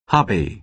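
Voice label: "hobby", American English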